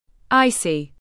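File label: "icy", English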